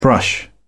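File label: "brush", English, British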